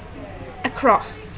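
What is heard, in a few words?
"across", British English, female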